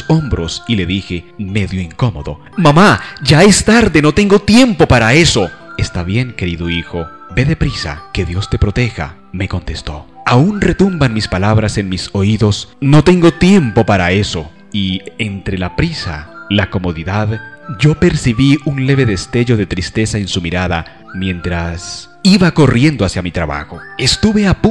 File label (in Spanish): poesia madre locutor